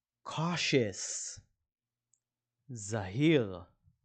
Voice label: "cautious" – "זהיר"

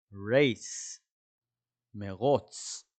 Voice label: "race" – "מרוץ"

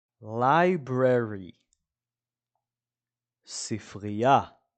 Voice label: "library" – "ספרייה"

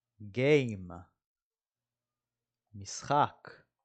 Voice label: "game" – "משחק"

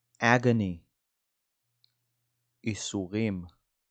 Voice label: "agony" "יסורים", dictionary

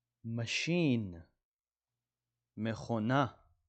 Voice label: "machine" – "מכונה"

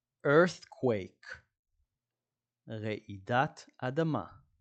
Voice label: earthquake – רעידת אדמה

